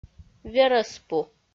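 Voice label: "верӧспу", Komi, female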